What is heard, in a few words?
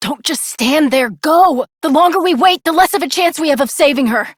Operator Voice; JadeShadowsQuest; Mission Three